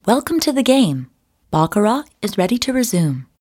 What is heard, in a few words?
Voice Over "WELCOME"; Baccarat; TABLEGAMES